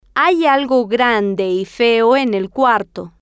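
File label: Spanish, female; hay Algo Grande Y Feo En El Cuarto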